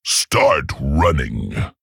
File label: Male Tauren Attack